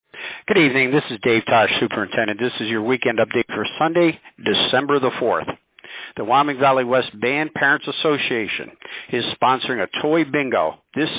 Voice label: Robocall